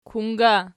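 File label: "kung", ergative, Archi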